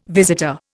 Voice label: "visitor", Indian